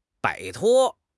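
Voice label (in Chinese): "摆脱", Chinese; HSK6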